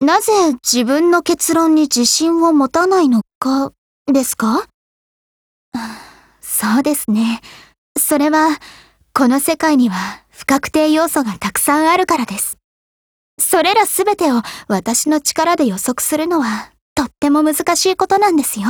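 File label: DIALOGUE, Japanese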